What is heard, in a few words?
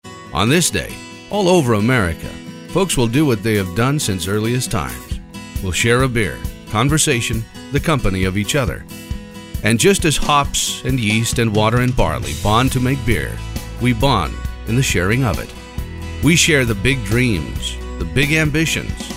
Americana